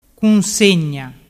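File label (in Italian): "cunsegna", Vernazzese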